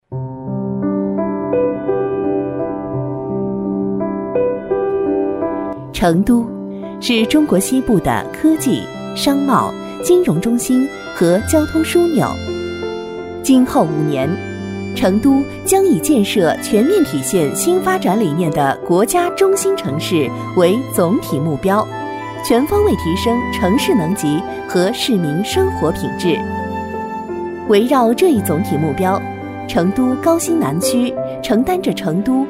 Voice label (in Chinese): 女-专题-照明宣传; 成都